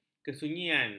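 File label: "kesunyian", Malay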